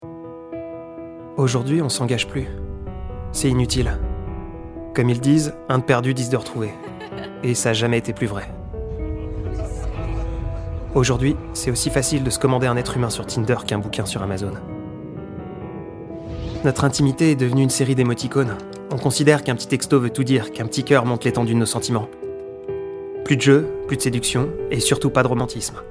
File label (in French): Narration Aventure moderne